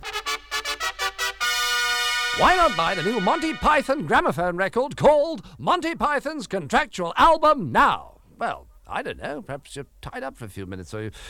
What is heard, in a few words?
Radio Ad Promo, Fanfare; Monty Python's Flying Circus; Contractual Obligation